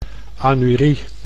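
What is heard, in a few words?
"anurie", Dutch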